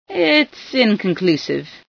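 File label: inconclusive, female scientist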